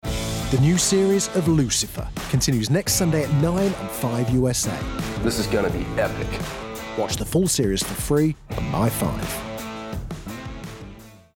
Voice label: Promo

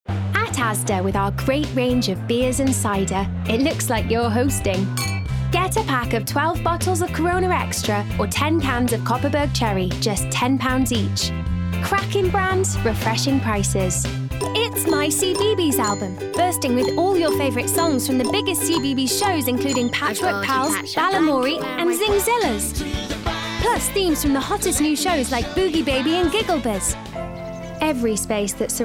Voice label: Commercials Montage

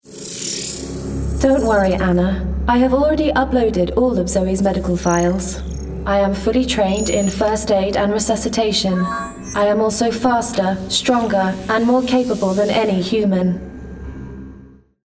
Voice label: Gaming Character Android Natural

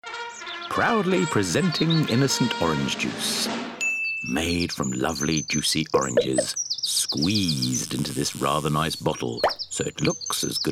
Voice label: Commercials Montage

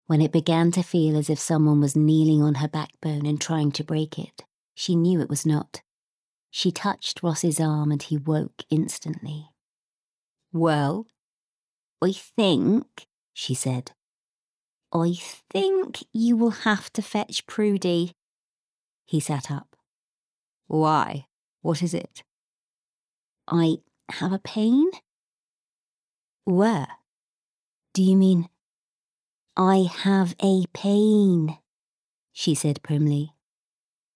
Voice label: Bookread; Poldark by Winston Graham (Neutral / West Country accents)

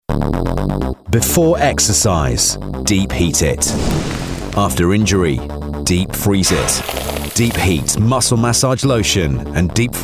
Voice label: Commercial; Deep Heat

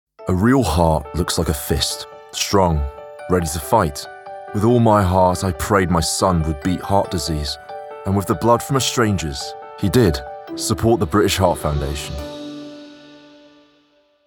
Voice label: Commercial; British Heart Foundation

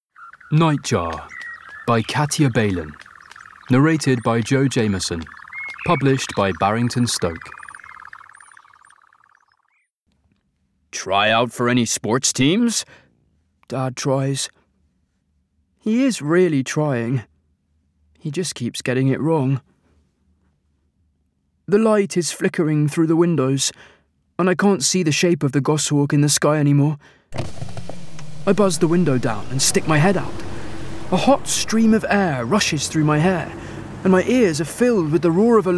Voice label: Childrens; Nightjar

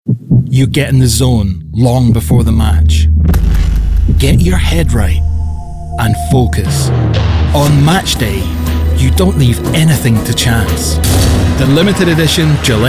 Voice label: Commercial; Gilette

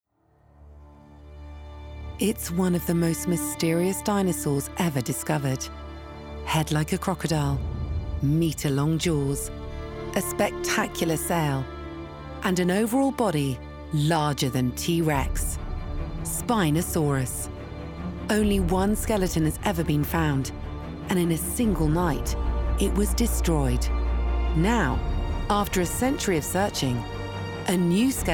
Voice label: DOCUMENTARY; Spinosaurus

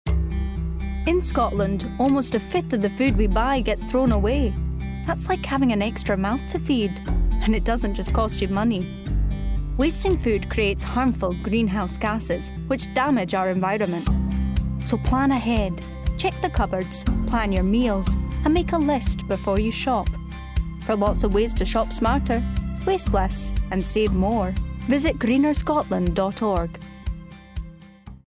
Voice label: Scottish; GreenerScotland

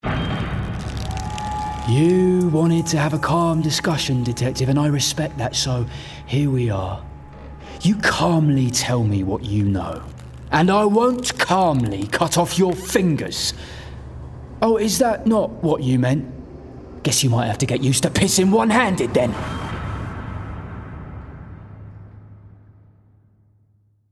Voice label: Gaming Villain Cockney; Gaming Character – Cockney Villian

